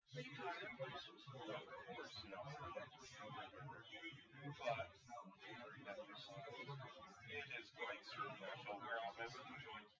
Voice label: male, synth conv, 250ms, 5cm; SiSEC08; SiSEC underdetermined

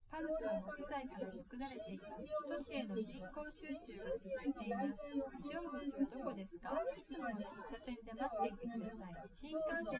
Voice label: test female liverec 250ms 1m src; SiSEC08; SiSEC underdetermined

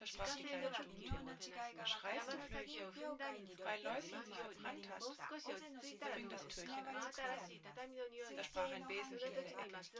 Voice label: female synthconv

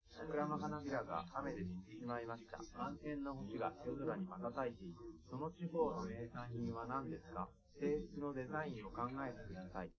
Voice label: male live rec; SiSEC10; underdetermined

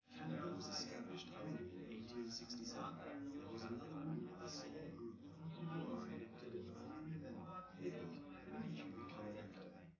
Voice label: male4 liverec 250ms 5cm sim

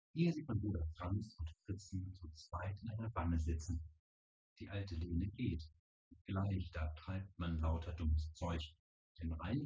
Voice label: male4 synthconv; SiSEC08; SiSEC underdetermined

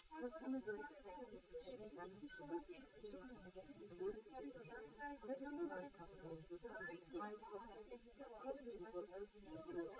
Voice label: female liverec; SiSEC11; underdetermined

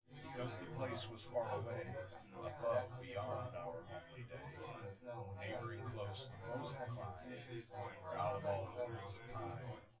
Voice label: male synth conv

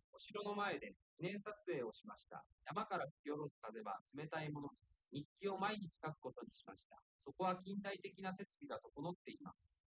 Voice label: male4 liverec 250ms 1m sim; SiSEC08; SiSEC underdetermined

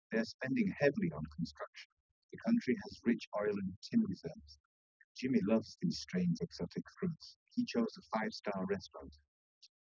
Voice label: male; SiSEC10; underdetermined

